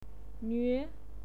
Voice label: "nuue2", pinyin

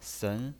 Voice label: "sen2", pinyin

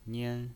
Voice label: "nian1", pinyin

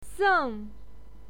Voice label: "seng4", pinyin

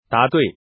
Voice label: right male

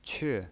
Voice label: "que4", pinyin

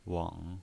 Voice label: "weng3", pinyin

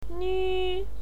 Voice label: "nuu1", pinyin

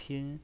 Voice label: "pin1", pinyin

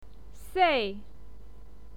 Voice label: "sei4", pinyin

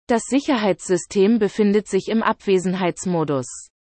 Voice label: current away, de-DE

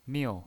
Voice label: "miu4", pinyin

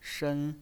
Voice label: "shen1", pinyin

